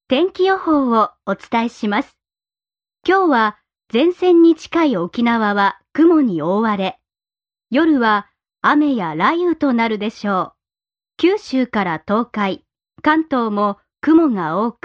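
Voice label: Japanese, female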